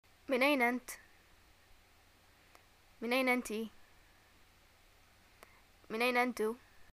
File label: where r u from, arabic, ye